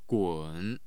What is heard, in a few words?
"gun3", Chinese; Chinese Nursery Rhyme; 滚